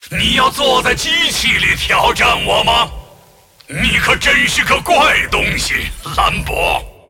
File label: move, zh